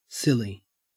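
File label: "silly", Australian English; pronunciations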